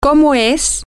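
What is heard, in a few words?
what is it like, spanish, female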